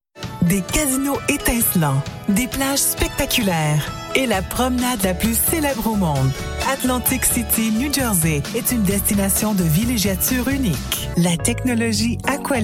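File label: female, Canadian French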